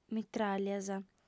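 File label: "mitràglias", female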